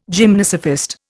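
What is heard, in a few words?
"gymnosophist", Indian English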